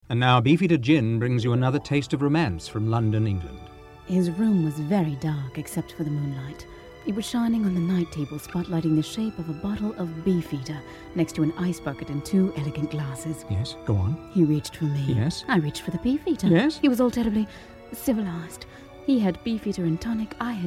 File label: BRITISH